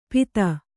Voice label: "ಪೀತ", Kannada